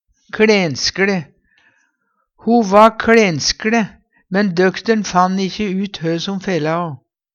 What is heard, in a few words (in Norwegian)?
kLenskLe, Numedalsmål; Ho va kLenskLe, men døkter'n fann ikkje ut hø som fela'o.